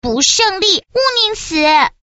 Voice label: quick chat voice, girl